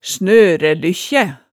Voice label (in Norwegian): "snørælykkjæ", hemsedalsmaalet